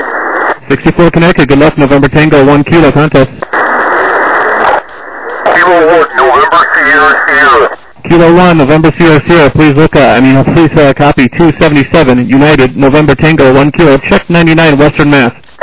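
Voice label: lower sideband 7MHz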